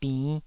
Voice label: "binn5", taiwanese